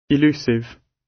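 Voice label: "elusive" British; Crime and punishment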